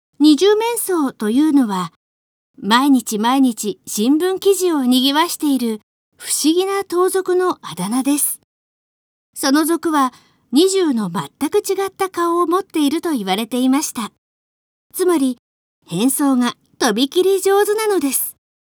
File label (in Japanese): girl; 怪人二十面相